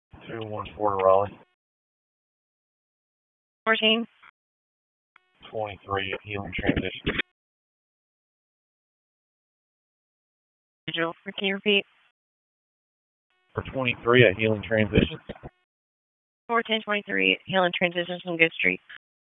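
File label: Raleigh Police Northeast District Dispatch, p25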